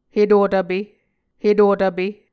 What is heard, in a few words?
"hetutvpe", Muscogee